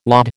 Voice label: "laud", American